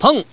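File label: "peng", Chinese, female; 男; 欢乐麻将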